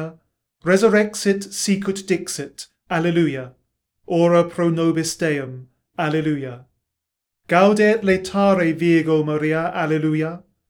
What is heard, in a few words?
Regina Caeli Latin spoken